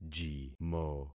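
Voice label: "jimo", concatenated syllables; Affixing experiment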